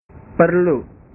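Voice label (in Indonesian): "perlu", Indonesian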